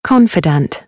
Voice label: "confidant", British; English

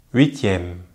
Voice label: "huitième", French

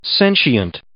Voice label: "sentient", English

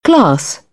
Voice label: "class", British

